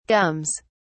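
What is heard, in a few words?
"gums", British English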